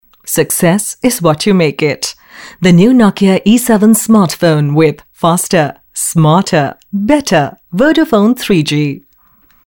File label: english, female voice; nokia